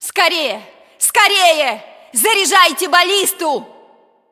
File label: cinematic voice, ru; lineage2